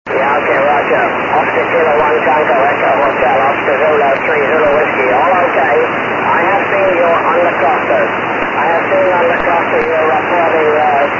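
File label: SSB qso, 144 MHz, amateur radio; JO54RS 585; qso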